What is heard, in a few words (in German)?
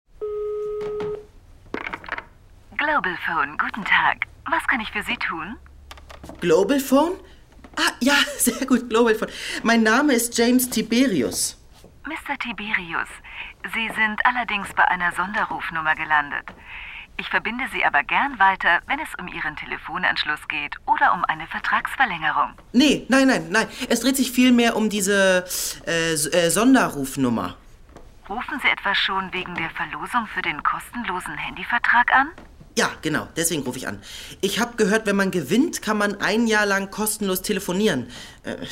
Horspiel Telefonistin; Die 3 Fragezeichen